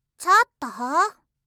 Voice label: "chottoo", reaction, voice

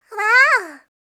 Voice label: "uwaao", reaction, voice

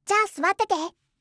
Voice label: "jaa suwatte te", voice, game; kiku

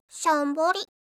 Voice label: shonbori

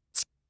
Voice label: "chi", kanjou

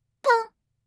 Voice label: "pun", kanjou

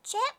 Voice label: "che", reaction, voice

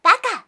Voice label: "baka", voice

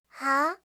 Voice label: "haa", voice, reaction

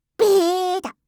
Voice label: "beeda", voice

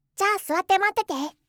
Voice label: "jaa suwatte matte te", voice, game; kiku

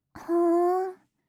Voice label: "fuun", reaction, voice

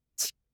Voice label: "chi", kanjou

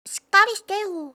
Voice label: shikarrishite, kanjou

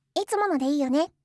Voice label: "itsumo no de iiyo ne", voice, game; kiku

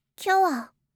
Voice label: "kyou wa", voice, game; kiku

